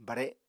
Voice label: "bare", Apatani